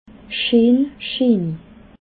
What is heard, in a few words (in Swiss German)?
"scheen", alsace